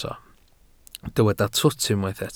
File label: "twt", Welsh